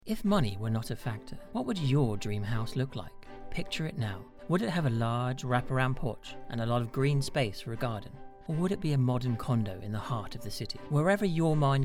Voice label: Commercial